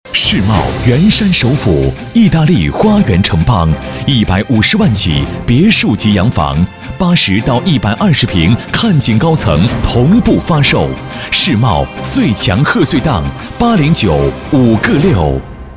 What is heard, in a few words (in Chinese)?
男 广告; 世贸原山